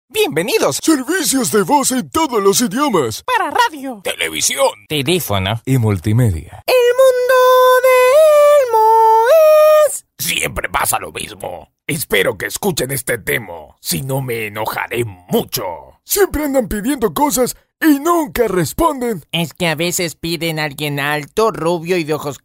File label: personajos, Cartoon Voice Over, Animation Voice-overs Character Voice Over; Spanish (Latin American)